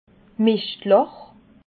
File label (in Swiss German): "mischtlach", alsace